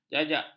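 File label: "jak", Malay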